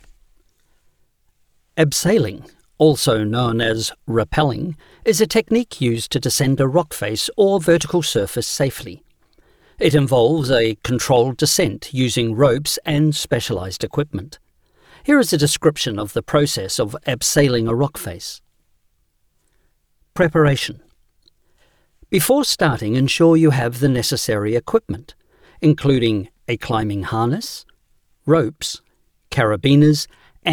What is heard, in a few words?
E-learning; Abseling